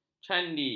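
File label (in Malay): "candi", Malay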